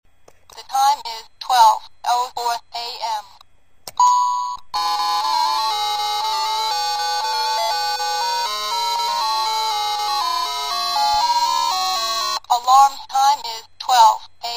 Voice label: talking desk calculator

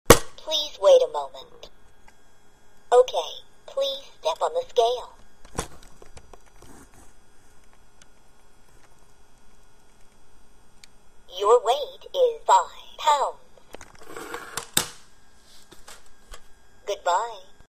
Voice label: talking bathroom scale

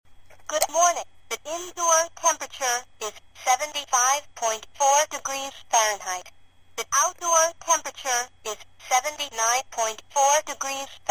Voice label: talking indoor-outdoor thermometer

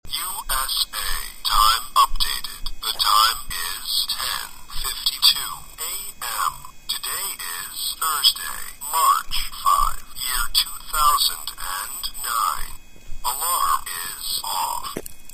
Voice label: atomic talking watch

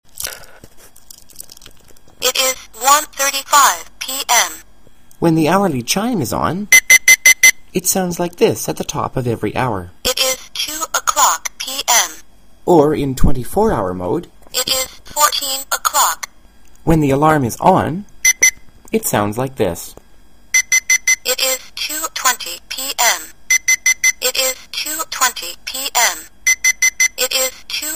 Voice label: talking keychain clock

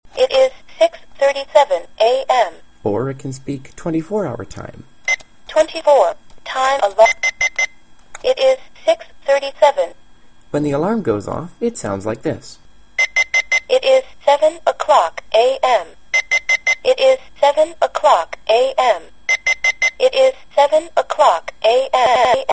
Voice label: easy-touch talking clock

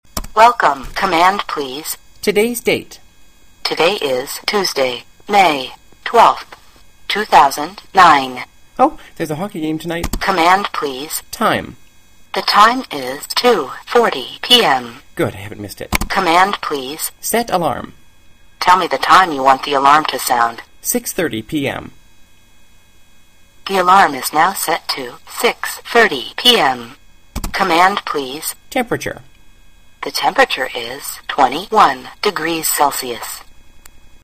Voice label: voice-controlled talking travel clock; moshi

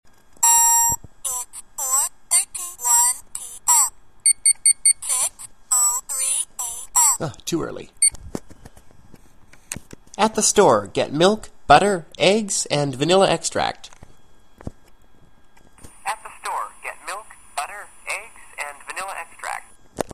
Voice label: talking memo pen

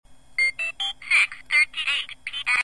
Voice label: water resistant talking watch

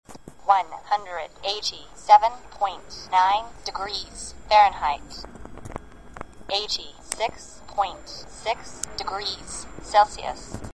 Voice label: talking cooking thermometer

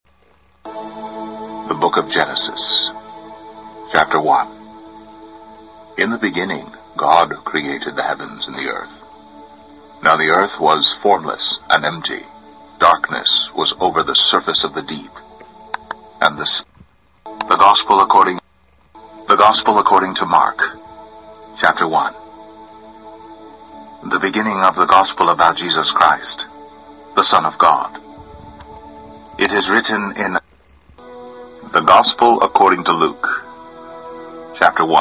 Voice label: handheld audio bible

